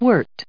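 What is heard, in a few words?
"wort", US English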